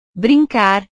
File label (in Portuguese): "brincar", portugues